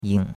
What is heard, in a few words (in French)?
"ying3", chinois; Cours-de-Chinois